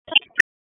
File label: Police Northwest District Tac 1